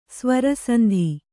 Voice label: "ಸ್ವರಸಂಧಿ", Kannada

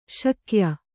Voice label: "ಶಾಕ್ಯ", kannada

